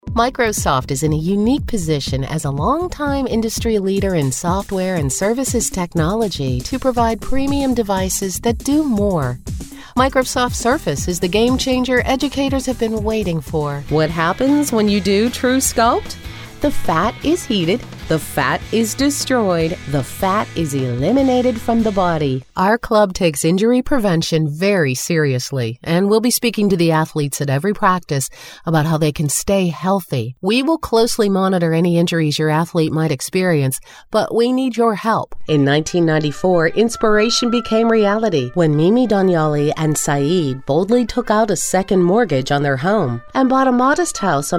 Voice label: Narration